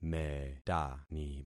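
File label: "metani", concatenated syllables; Affixing experiment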